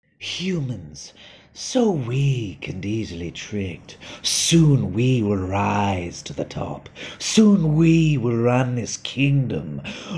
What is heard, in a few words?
Character Voice; Reel